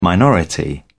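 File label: "minority", British English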